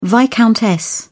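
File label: "viscountess", English, British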